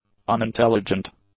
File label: "unintelligent", English; adjectives